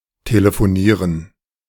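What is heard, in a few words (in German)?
"telefonieren", German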